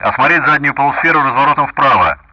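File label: Speech Sound Russian; DCS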